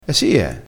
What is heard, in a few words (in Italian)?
"e sie", crespinese